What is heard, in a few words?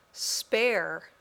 "spare", English